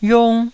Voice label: "yung1", taiwanese Syllables

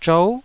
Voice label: "zhou9", Taiwanese syllable

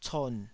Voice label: "con3", Taiwanese

